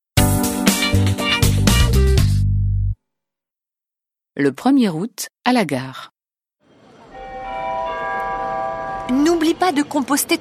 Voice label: French